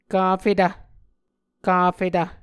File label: "kafetv", Muscogee